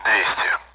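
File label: Speech Sound Russian Common AWACS Numbers; DCS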